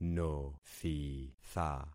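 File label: "nothitha", concatenated syllables; Affixing experiment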